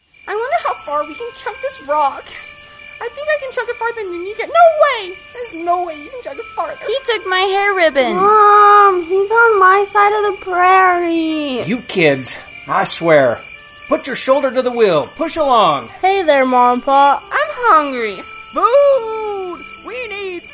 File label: Commercial; BootSnake